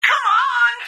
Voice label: CMON, VOX